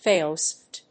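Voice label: "Fail soft", English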